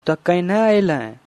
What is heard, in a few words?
"Tau kainah aayle", Angika language; How did you come?